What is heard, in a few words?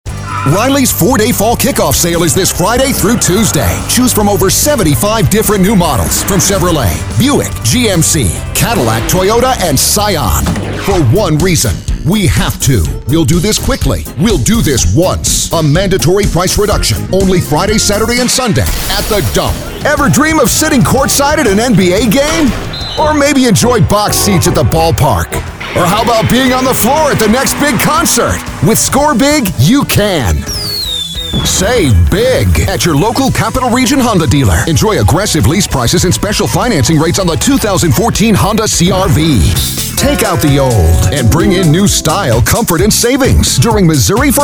high energy, voice